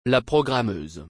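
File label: "la programmeuse", French; برنامه نویس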